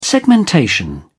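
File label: "segmentation", British English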